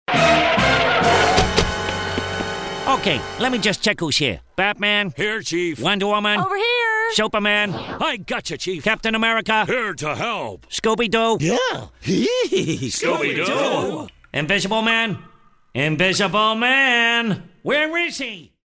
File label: superhero characters